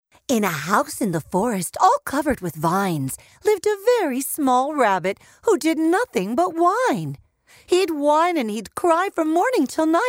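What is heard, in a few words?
Pre school Childrens Lit, Audiobook Narration; Early Children’s Literature; The Nitric Oxide Pathway E Module Lung Series / Early Children’s Literature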